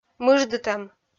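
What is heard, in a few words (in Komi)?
"мыждытӧм", Komi, female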